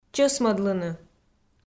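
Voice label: "чӧсмӧдлыны", Komi, female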